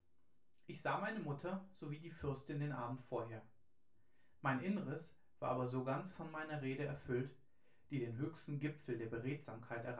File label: male, synthconv